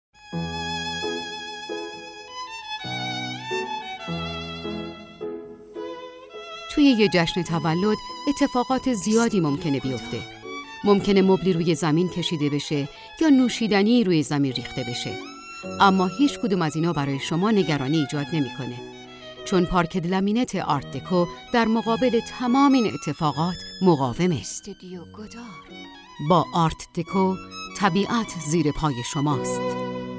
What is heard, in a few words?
commercial